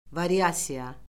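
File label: "variasiya", Azerbaijani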